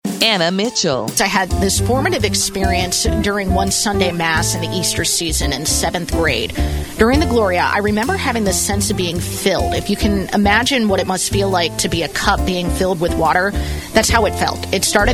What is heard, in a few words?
Network Promo; Son Rise Morning Show